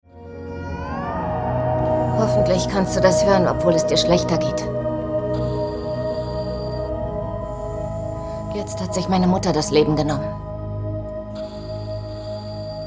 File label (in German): Synchron; Die Bruecke Staffel 03